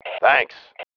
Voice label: THANKS, MALE

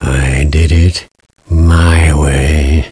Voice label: Chat; svencoop; voice commands

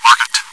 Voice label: chitchat, bot, voice commands; svencoop

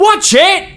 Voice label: Look Out, male; svencoop

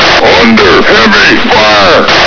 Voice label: radio under fire; firearms

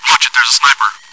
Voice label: chitchat, bot, voice commands; svencoop

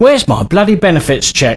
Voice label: Need Money, male; svencoop

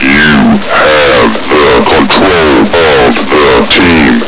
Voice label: lead, voice commands, grunt; svencoop

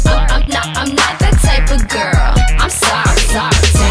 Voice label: type girl

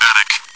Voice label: chitchat; svencoop

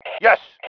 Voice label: "YES", male

